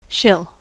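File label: "she'll", US English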